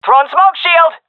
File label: throwing smoke, seal fem; vo; Counter Strike Model Pack; CS:GO